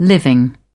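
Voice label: "living", British English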